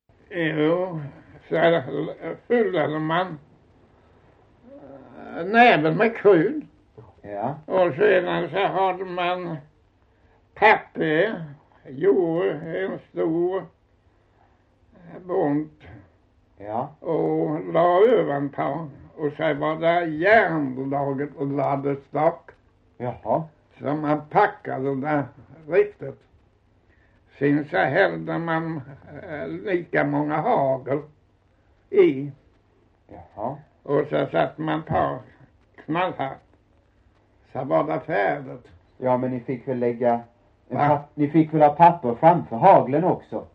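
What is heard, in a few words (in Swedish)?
Osby Skåne, dialekter; Folkminnen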